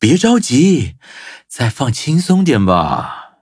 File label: loading zh-hant; cookie run